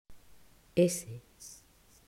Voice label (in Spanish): pronunciación fonema s